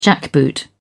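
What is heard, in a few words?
"jackboot", British English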